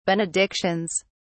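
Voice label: "benedictions", English, British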